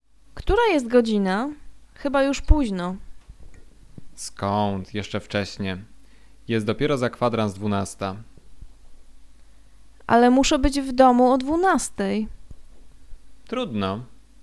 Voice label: conversation; lektorek; firstyear